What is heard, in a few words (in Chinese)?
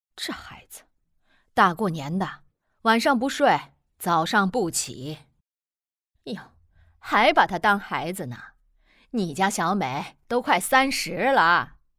两个老人角色